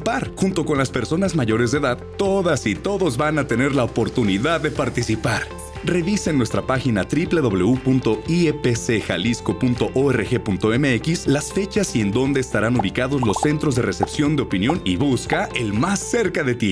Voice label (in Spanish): Consulta popular Radio